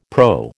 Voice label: "PRO", American